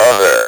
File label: "OTHER", Speak & Spell